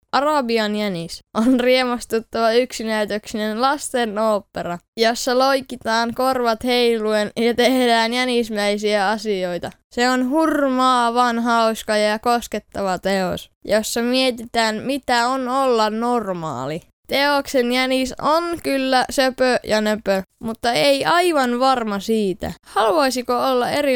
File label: FINNISH boy, children